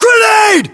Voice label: inform incoming grenade, battlechatter, English, US